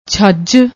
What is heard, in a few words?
"chhaj", Punjabi; ਛੱਜ